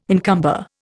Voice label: "encumber", Indian